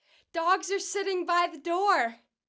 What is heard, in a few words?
happy